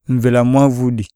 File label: breath, iyasa